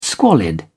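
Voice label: "squalid", English, British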